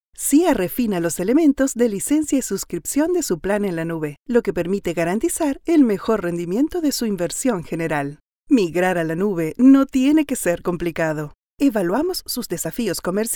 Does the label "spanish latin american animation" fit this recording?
Yes